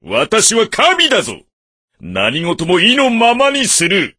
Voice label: voice, dubbing